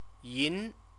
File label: "yin", Chinese; CTCFL; University of Oxford